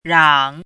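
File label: "rang3", Mandarin Chinese syllable, pinyin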